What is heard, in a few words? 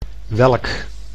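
"welk", Dutch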